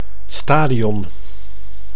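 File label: "stadion", Dutch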